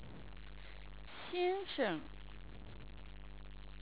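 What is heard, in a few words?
"xiansheng", Chinese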